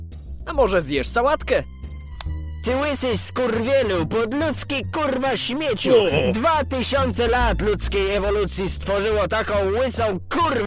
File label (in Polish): dubbing pl; gruby ma depresje